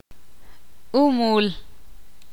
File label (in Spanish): conejo, ixil